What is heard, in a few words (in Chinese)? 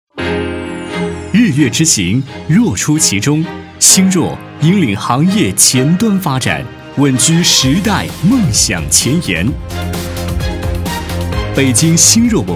宣传片 企业 轻快; 星若文化